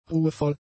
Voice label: "ruhevoll", German; adjectives